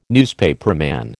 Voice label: "newspaperman", American